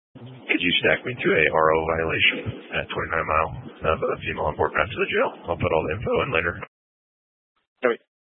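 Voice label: Sheriff Dispatch, Scanner audio recording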